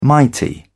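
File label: "mighty", British English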